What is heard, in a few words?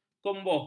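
"kumbuh", Malay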